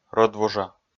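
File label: "рӧдвужа", Komi, male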